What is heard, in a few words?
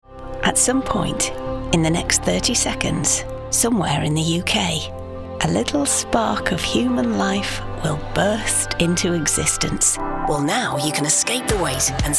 Commercial Showreel